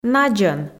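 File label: "надзӧн", Komi, female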